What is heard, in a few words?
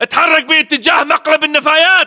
order cover dumpster, battle chatter, english